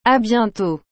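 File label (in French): "À bientôt", French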